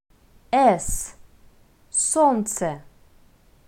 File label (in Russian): "с", Russian